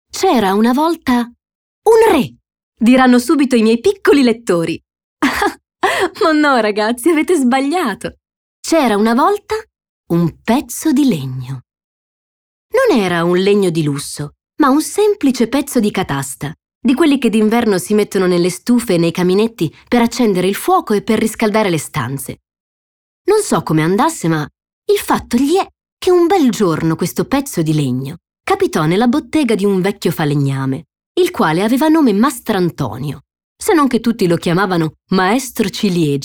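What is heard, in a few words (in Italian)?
Favola, voci femminili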